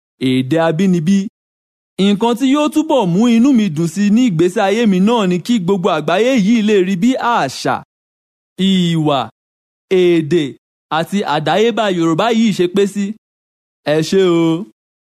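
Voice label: Yoruba, male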